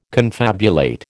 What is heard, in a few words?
"confabulate", American